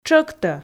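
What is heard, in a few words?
"тшӧктӧ", Komi, female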